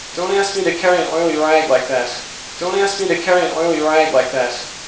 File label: Noisy Reverberant Speech